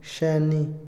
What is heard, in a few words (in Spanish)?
pedazo, Otomí, hombre; Cantidad; INALI